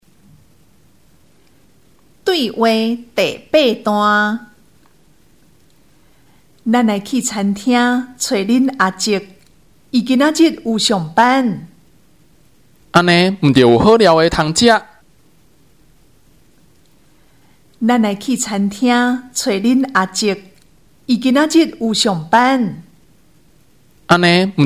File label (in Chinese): 對話, 閩南語; 閩南語語言能力認證; 閩南語能力測驗題本